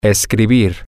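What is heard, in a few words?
to Write, Spanish, male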